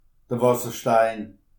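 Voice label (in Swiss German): "wasserstein", Alsatian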